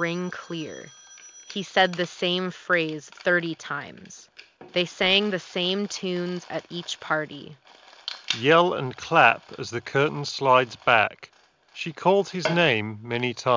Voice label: Office microphone sample; Logitech G535